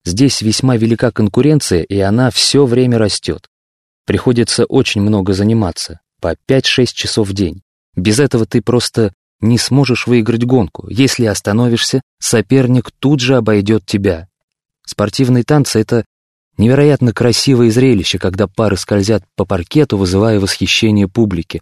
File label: russian male